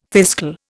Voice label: "fiscal", Indian